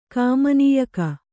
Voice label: "ಕಾಮನೀಯಕ", Kannada

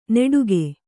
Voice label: "ನೆಡುಗೆ", Kannada

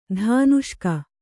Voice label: "ಧಾನುಷ್ಕ", kannada

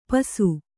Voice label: "ಪಿಸು", Kannada

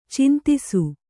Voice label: "ಛಂದಸ್ಸು", kannada

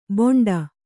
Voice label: "ಬೋಂಡ", Kannada

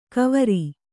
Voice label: "ಕುವರ", Kannada

